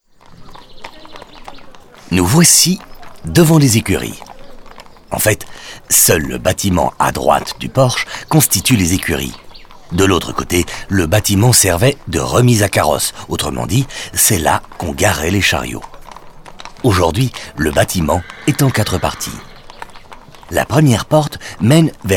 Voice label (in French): audioguide fr enfant; jardin; modave